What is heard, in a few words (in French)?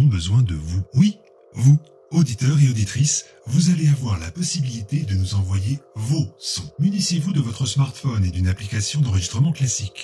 annonce asmr radio